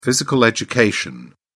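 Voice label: "physical education", British English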